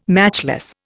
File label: "matchless", Indian